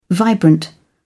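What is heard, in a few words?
"vibrant", British English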